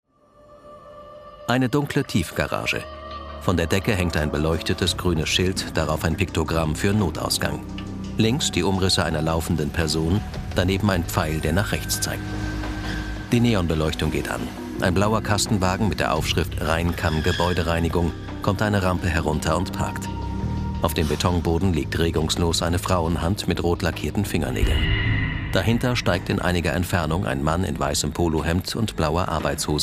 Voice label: sprecher audiodeskription, German voice recordings, Native Speaker; soko seitenwechsel